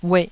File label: "ueh2", taiwanese